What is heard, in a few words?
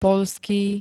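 "poľský", Slovak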